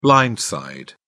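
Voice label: "blind side", British English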